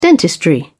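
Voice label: "dentistry", British English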